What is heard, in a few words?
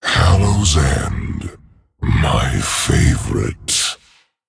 Male Dragon, HAPPY HALLOWEEN; Hallow's End... My favorite.; 风暴巨龙迦拉克隆（英雄）